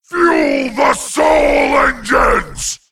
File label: Male Felguard